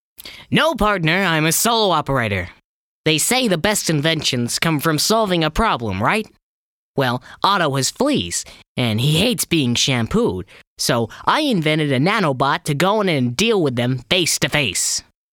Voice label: American Nerd